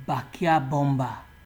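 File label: "backyabomber", Nigerian Pidgin, male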